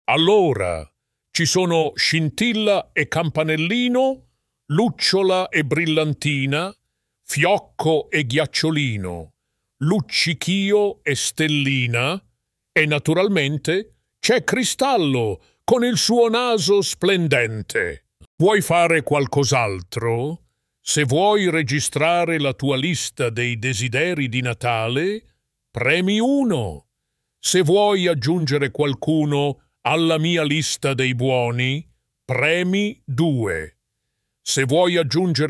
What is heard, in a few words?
voicemail, italian